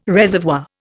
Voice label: "reservoir", Indian

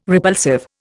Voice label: "repulsive", Indian

